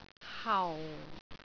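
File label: "hau4", Cantonese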